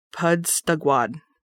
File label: "June", Lushootseed